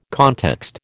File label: "context", American